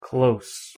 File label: "close" adjective